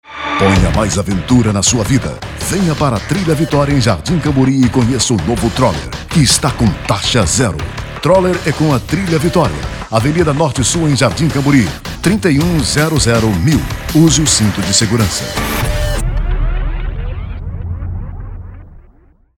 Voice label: portuguese brazilian commercial